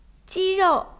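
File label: "ji rou", Chinese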